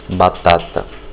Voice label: potato, portuguese; word of day